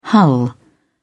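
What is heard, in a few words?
"hull", English, uk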